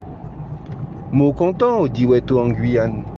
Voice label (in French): Dialogue en creole